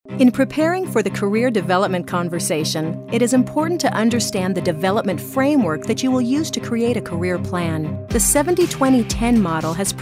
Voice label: Authoritative